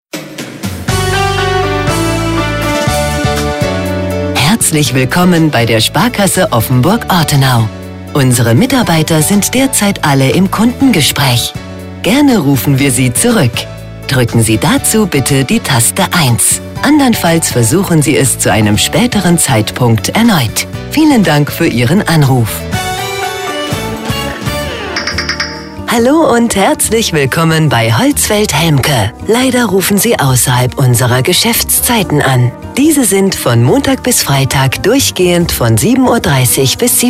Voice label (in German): telefonansagen sprecher de